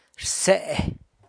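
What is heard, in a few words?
"rse", San Lucas Quiaviní Zapotec; echo of (someones) voice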